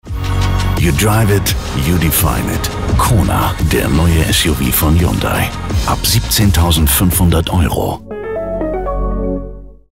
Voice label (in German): werbung funk; HYUNDAI KONA